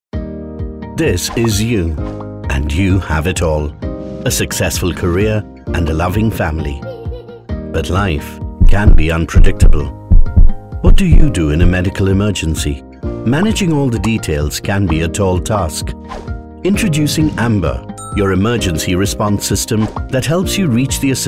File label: Male British English Voice Over, UK accent voice over